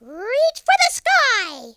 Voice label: "watch this", Cowgirl; speech

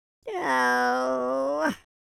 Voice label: sad, Welsh; speech

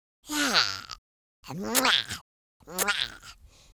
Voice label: happy, Horror

